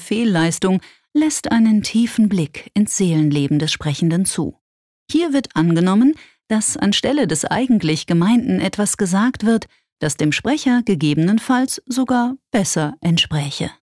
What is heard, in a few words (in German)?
Versprecher Sanft